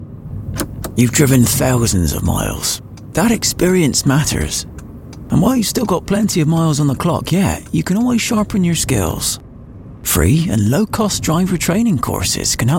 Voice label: west country